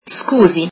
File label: Sorry, italian